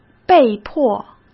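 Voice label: "被迫", Mandarin Chinese; hsk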